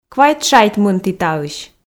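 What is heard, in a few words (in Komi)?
квайт шайт мынті таысь, Komi, female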